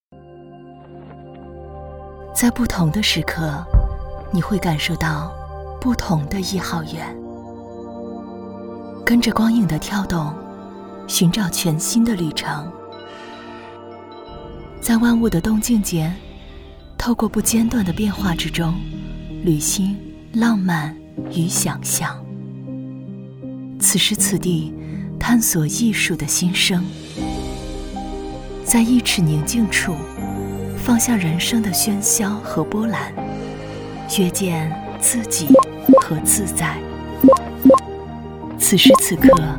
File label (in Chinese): 女 广告; 壹号院